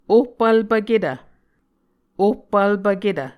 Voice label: "ohpvlpvketv", Muscogee